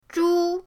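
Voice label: "zhu1", Mandarin Chinese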